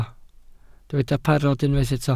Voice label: "parod", Welsh